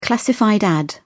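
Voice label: "classified ad", English, uk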